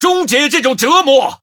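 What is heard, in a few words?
Male, Chinese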